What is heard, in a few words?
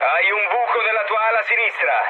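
Italian left wing mood low, speech; War Thunder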